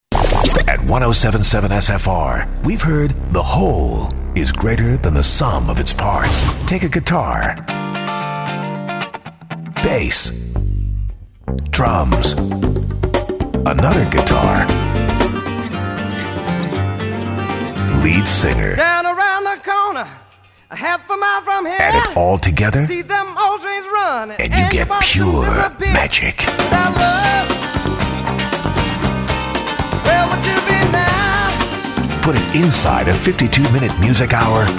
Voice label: radio imaging male classic rock